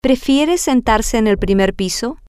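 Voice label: Spanish, female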